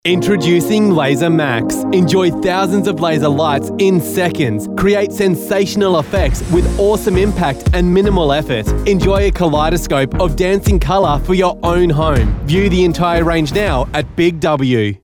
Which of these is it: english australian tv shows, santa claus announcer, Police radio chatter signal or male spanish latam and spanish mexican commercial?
english australian tv shows